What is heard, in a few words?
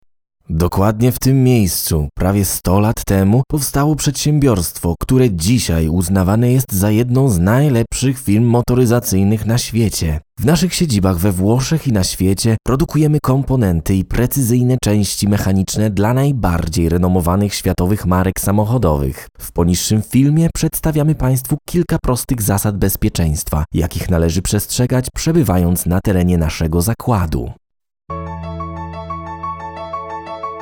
Music Corporate Commercial and Training